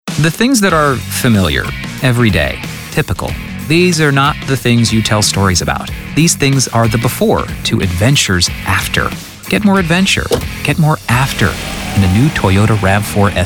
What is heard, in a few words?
commercial